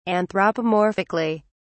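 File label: "anthropomorphically", English, British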